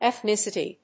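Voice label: "ethnicity", English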